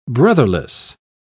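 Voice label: "brotherless", British English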